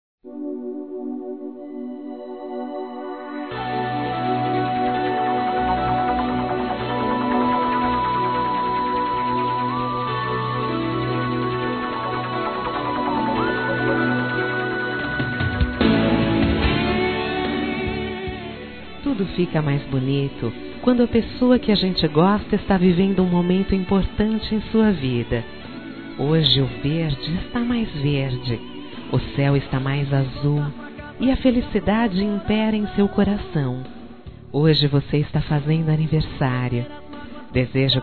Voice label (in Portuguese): NEUTRO (F)